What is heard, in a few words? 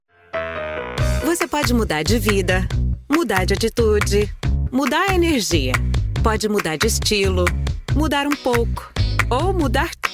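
female, Brazilian Portuguese, commercial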